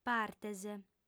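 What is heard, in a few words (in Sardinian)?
"partes", female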